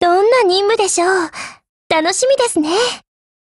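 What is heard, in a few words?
OPERATION BEGIN, Japanese; GF Alarm; AR70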